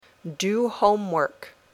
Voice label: "do homework", English